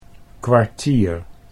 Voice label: "kwartier", Dutch